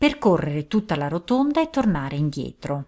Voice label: Italian female

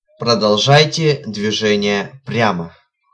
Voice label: Straight, Russian, male

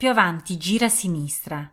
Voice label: Ah Left Turn, Italian female

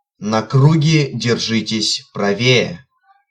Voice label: Rb Right, Russian, male

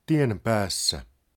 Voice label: Finnish male; Opas; Road End

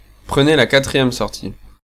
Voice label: French male

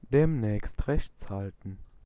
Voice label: Keep Right, German, male

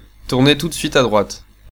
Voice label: Sharp Right, French, male

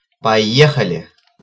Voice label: Depart, Russian male

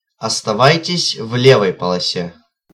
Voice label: Ln Left, Russian, male

